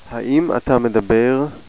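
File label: do you speak, hebrew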